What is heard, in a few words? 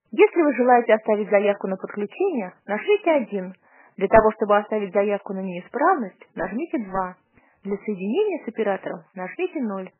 ivr sonic press